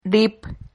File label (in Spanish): "rip", Silabas